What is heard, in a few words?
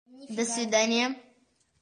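"do svidaniya", Russian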